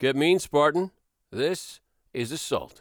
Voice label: announcer sustain assault intro